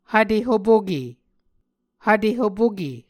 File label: "hvte hopoke", Muscogee